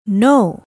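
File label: "no", English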